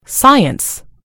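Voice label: "science", American English; gcompris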